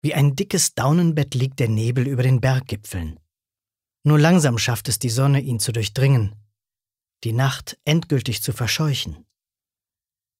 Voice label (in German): Schauspiel Variabel